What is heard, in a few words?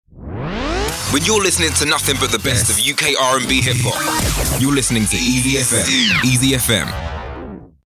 london voice continuity radio